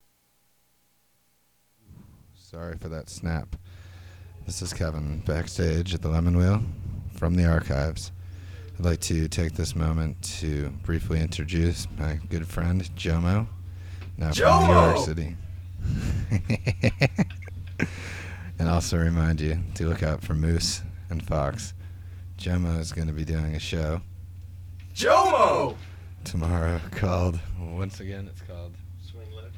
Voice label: radio banter; Lemonwheel